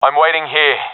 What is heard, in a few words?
waiting here, professional epic; vo; Counter Strike Model Pack; CS:GO